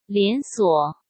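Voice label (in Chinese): "连锁", Mandarin Chinese; chinese-flashcards; hsk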